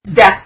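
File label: "de", Kurdish, female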